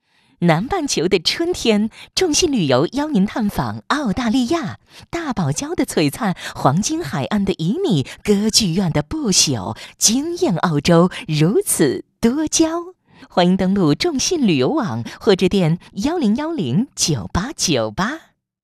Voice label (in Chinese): 女 旅游广告 欢快; 众信旅游